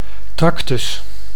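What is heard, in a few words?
"tractus", Dutch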